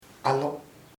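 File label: saliva saliva, Chamacoco word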